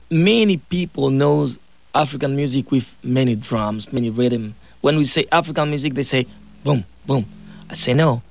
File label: African